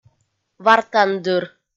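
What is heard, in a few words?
"вартандыр", Komi, female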